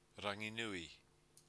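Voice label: "ranginui", Māori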